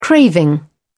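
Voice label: "craving", English, British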